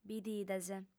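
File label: "bidides", female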